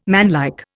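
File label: "manlike", Indian English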